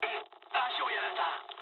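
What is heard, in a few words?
Japanese damaged pilot mood low; War Thunder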